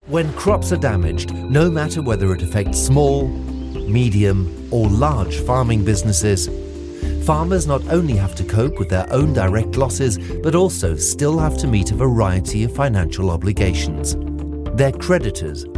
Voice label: voiceover native speaker uk british englischer sprecher Image movie; Corporate Video; Munich RE